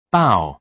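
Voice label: "bow", British English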